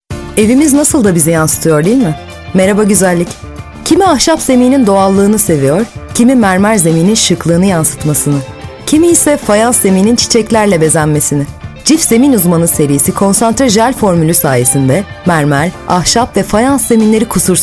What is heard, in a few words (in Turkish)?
reklam; cif